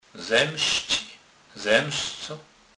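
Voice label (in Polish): "zemscec", Kurpie dialect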